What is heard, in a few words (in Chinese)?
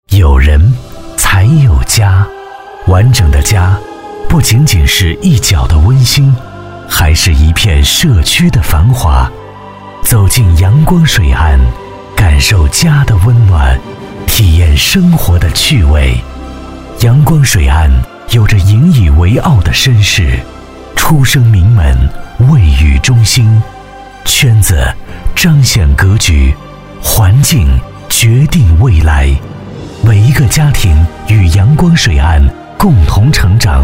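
男S347 国语 男声 广告-房地产广告-抒情大气 大气浑厚磁性 沉稳; 阳光水岸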